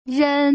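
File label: "ren", Mandarin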